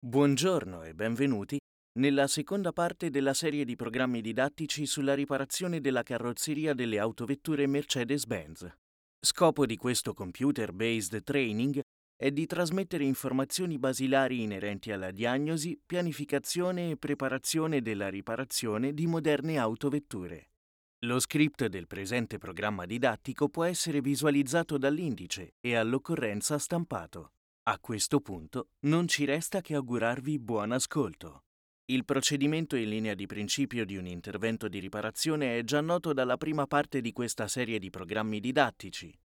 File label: short elearning